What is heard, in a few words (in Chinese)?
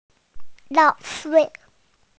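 "lang4fei4", Mandarin Chinese, female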